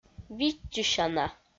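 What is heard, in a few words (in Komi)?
"виччысяна", Komi, female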